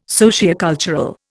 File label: "sociocultural", Indian